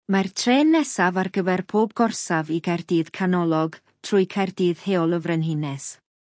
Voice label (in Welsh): announcement cardiff via queen street welsh; operator